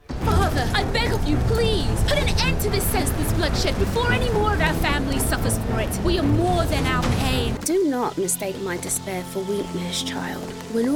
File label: Character